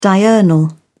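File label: "diurnal", British English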